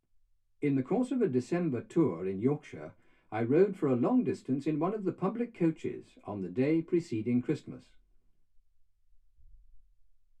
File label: male speech english